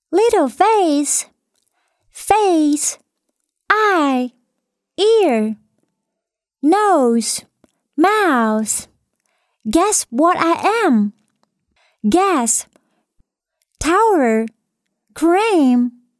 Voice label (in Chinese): 英女 英文童声单词